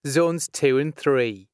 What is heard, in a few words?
Zones 2+3, announcements male